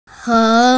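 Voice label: "heng2", Mandarin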